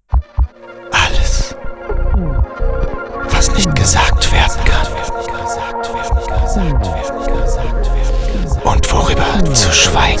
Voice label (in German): Emotionen Werbespot